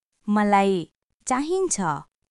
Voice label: Nepali, basic phrases

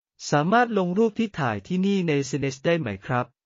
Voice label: Thai, basic thai phrases

